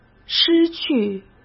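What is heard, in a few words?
"失去", Mandarin Chinese; chinese flashcards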